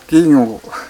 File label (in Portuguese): "olho", kalapalo